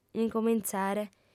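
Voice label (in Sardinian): "incomintzare", female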